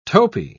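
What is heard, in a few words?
"topi", British English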